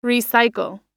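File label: "recycle", English; oxford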